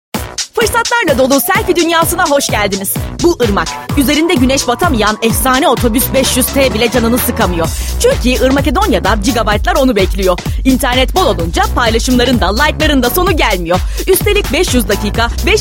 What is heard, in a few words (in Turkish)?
reklam